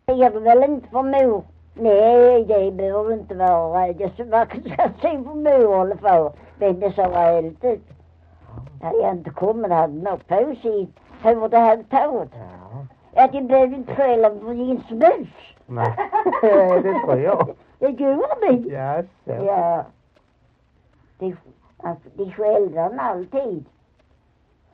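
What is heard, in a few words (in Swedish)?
Maglarp Skåne, dialekter; Folkminnen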